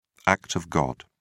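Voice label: "act of god", British English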